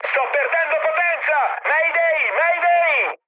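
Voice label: Italian damaged engine mood high, speech; War Thunder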